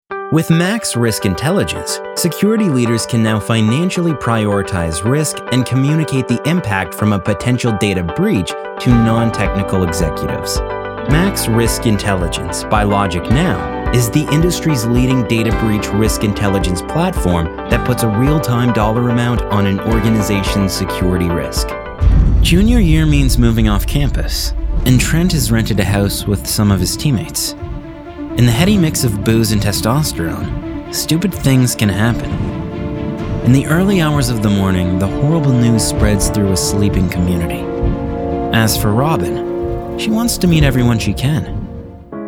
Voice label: Narration